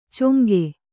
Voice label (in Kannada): "ಚೊಂಗೆ", Kannada